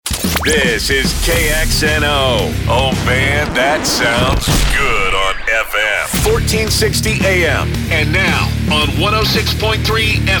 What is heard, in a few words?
Sports Imaging